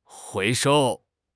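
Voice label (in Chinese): "回收", Chinese; HSK6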